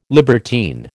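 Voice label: "libertine", American English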